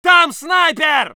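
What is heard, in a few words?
voice soviet infantry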